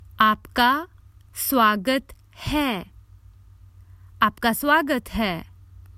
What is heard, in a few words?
Common Hindi Phrases for travel Youre welcome